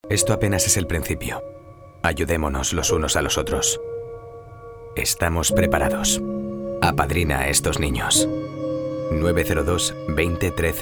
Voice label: male spanish ngo comercial; ideasonora